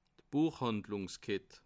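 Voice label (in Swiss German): "buechhandlungskett", Bas Rhin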